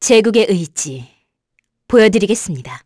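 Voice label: Vox Skill, Korean; Glenwys; kingsraid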